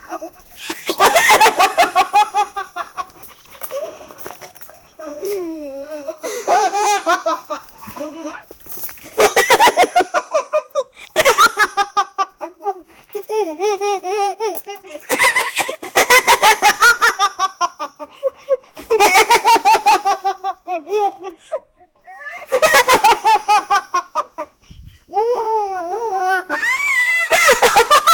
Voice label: a 25 year old man, fun